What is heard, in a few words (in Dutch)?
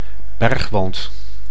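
"bergwand", Dutch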